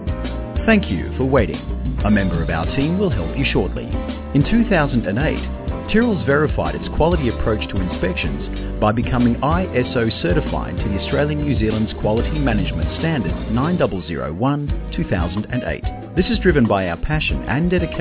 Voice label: On Hold